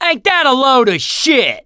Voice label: reaction negative, survivor voice mechanic